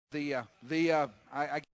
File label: stammer